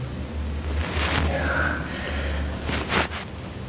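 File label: Basement voice; ODGH Webb Library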